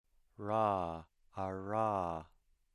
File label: voiced alveolar approximant